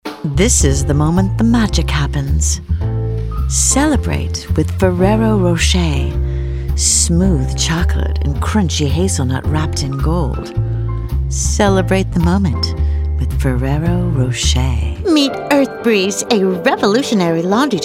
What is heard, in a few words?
Commercial